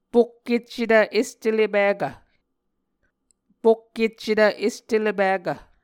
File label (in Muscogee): "pokkeccetv est ele pikv", Muscogee; Mvskoke Words with Repeated Consonants; Onvkv Ohhvyayices; A Dictionary of Creek/Muskogee; SIL International